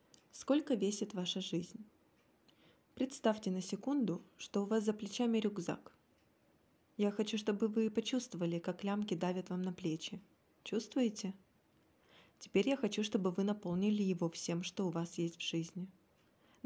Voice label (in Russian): русский